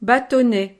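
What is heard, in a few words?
"batonnet", French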